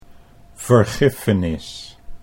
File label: "vergiffenis", Dutch